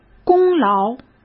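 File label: "功劳", Mandarin Chinese; chinese-flashcards; hsk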